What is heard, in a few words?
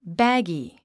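"baggy", English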